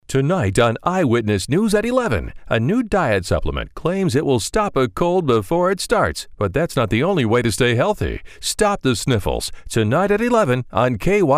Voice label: TV Promos